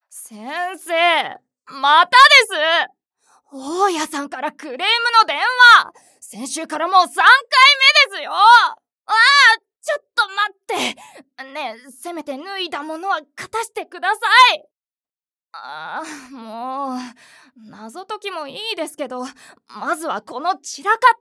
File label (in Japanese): 振り回される青年